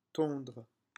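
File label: "tondre", French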